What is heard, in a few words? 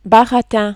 "baratin", French